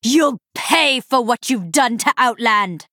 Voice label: Female Night Elf Start